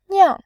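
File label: "niang", Mandarin, tone 4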